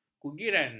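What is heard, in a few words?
"kugiran", Malay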